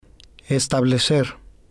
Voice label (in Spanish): "establecer", spanish